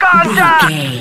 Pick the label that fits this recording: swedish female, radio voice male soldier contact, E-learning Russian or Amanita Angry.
radio voice male soldier contact